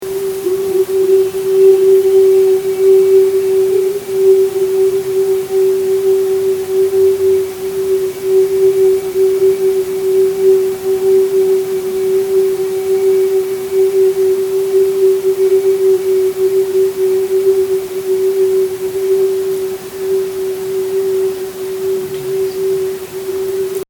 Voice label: VOICE THERAPY NEE